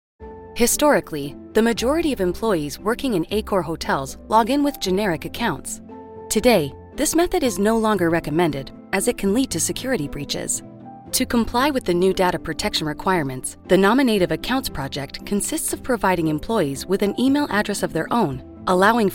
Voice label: english canadian e-learning